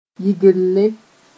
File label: "yɩgelle", Mooré